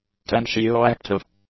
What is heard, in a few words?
"tensioactive", English; adjectives